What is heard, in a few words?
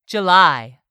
"July", English; 3000 words